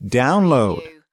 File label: "download", US male; lynne publishing